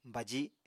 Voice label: "baji", Apatani

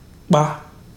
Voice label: "die", Ibibio

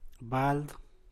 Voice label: "bald", San Mateo Macuilxochitl Zapotec; cubeta

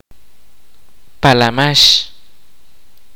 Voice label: kaqchikel; butterfly

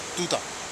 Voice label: "tuta", futuna aniwa; Canoe

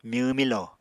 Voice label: "myw milo", Apatani

